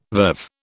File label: "verve", British